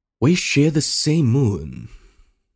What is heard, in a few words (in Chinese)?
男, 英文配音角色, 手游; 中英双语 科技感; 手游英魂之刃 吕布-瑶月戌华